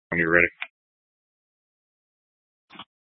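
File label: Incident Tactical 1, Scanner audio recording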